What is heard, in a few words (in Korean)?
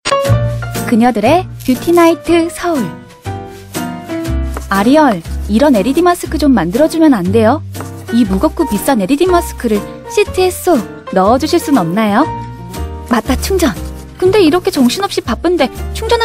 CM 마스크팩 친절한 20대; 아리얼